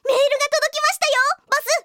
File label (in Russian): zvuki s golosom iaponskoi anime devushki